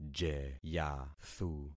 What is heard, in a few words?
"jeyathu", concat syllables; Affixing experiment